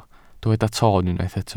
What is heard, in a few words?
"toon", Welsh